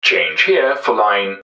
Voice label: change, english man, subway announcers; MetrostroiAddon